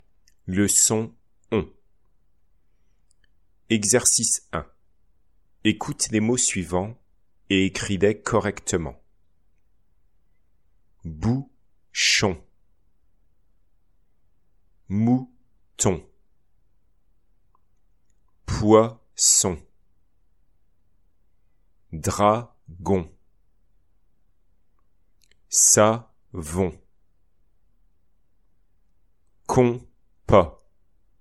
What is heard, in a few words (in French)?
dictee